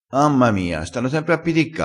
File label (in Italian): "piticca", crespinese